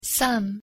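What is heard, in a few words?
pronunciation "some", English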